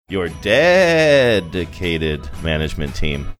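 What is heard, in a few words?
saying your dedicated management team spooky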